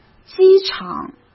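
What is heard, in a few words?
"机场", Mandarin Chinese; chinese flashcards; hsk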